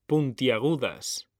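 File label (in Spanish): "puntiagudas", male; mekanta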